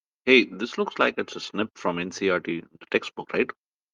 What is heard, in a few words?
voice message